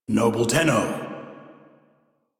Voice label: Crew Greet, Male; Dialog; en; Warframe; Railjack Crew; Arbiters Hexis